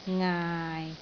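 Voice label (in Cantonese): "ngaai6", Cantonese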